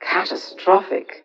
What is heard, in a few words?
LoFi Words, LoFi Vocals; Vengeance Samples; VVE1 LoFi Vocals